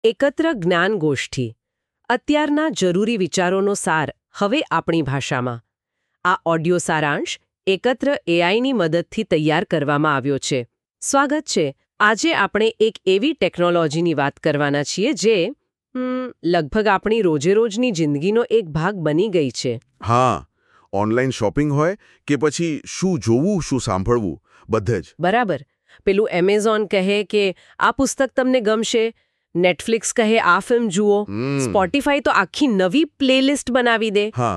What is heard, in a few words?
Gujrati